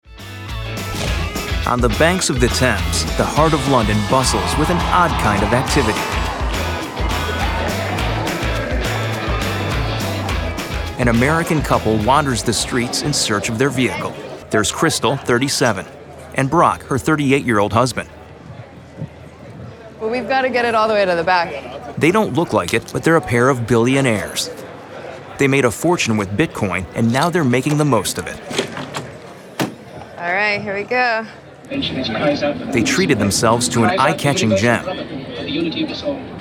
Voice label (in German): Dokumentarfilm Erzahler; Jugendfrei; NATIVE PROFISPRECHER